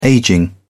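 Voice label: "aging", British English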